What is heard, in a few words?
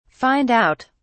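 "find out", British English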